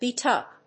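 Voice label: "beat up", English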